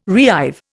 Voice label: "reive", Indian

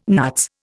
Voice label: "nuts", Indian

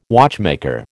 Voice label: "watchmaker", American

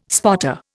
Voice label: "spotter", Indian

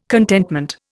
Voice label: "contentment", Indian

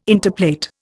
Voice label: "interplait", Indian

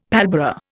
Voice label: "pallbearer", Indian; Dictionary

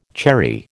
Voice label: "cherry", American

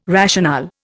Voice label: "rationale", Indian

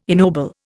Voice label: "ennoble", Indian

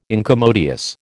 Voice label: "incommodious", American

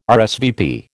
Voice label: "RSVP", American

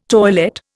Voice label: "toilette", Indian

